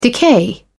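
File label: "decay", English, British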